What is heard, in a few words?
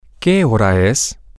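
what time is it, Spanish, male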